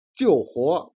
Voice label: "jian", Chinese